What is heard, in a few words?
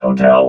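"hotel", robot voice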